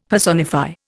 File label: "personify", Indian; Dictionary